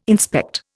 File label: "inspect", Indian